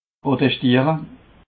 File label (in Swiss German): "brote schdiere", alsace